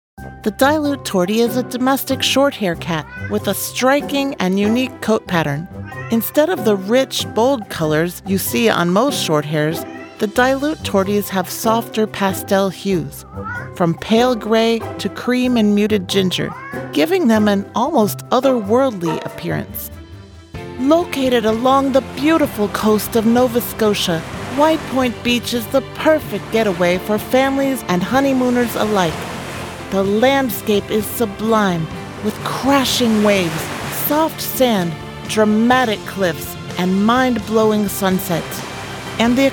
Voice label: Narration